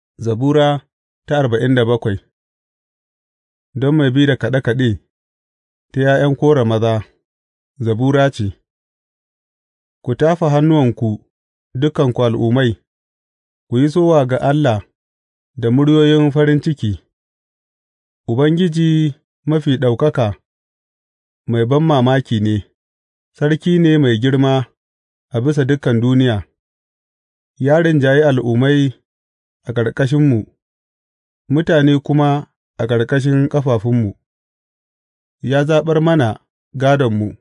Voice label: hausa bible drama, psalms; hausa bsn nt drama